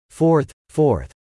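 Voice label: "Fourth 4th", English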